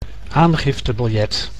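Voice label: "aangiftebiljet", Dutch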